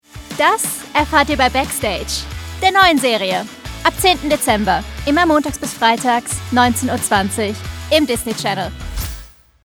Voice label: DE, voiceover; Backstage

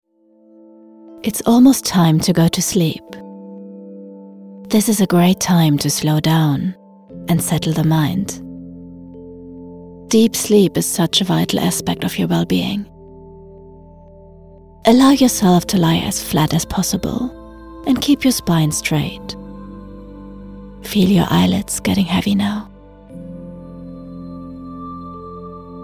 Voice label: EN Meditation, voiceover

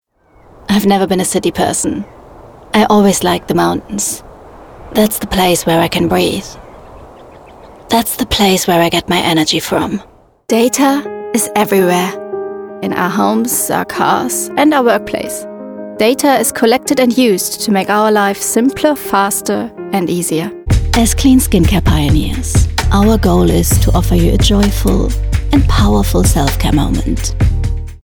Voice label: EN Corporate

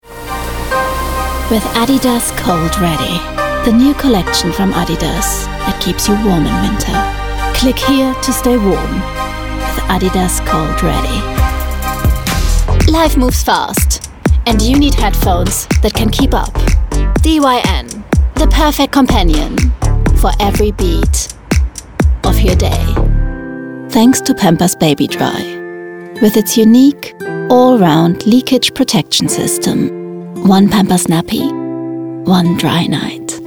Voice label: EN Commercial

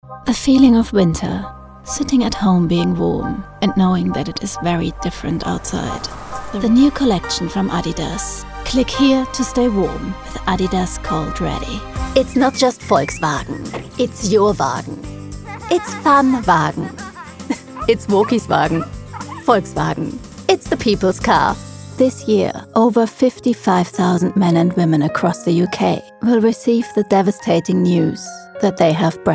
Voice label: Commercial English; Showreel 2024

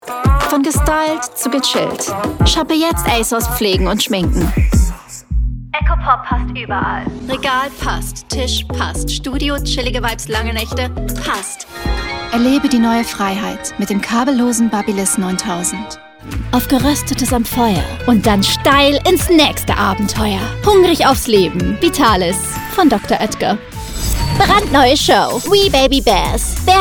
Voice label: DE Commercial

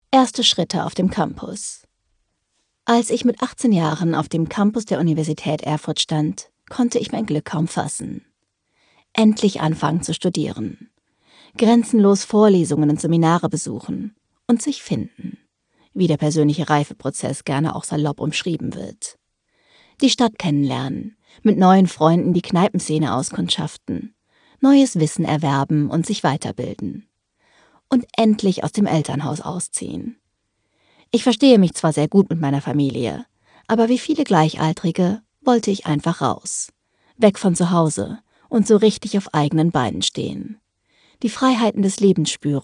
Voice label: Audiobook DE Factual; voiceover; Black Box Uni

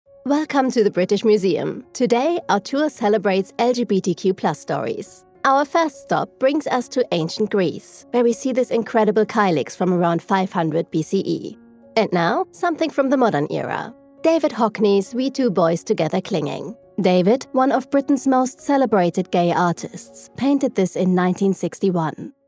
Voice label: Narration English BRIT, voiceover; MUSEUM

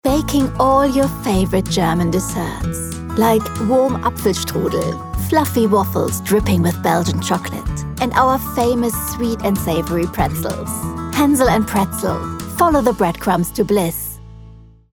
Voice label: Commercial, voiceover; HANSEL AND PRETZEL

